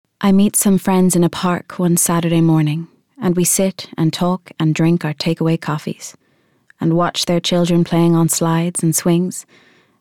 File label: Narration